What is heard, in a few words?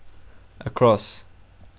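"across", Portuguese, male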